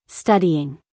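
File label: "studying", American English, female; everyone-can-use-english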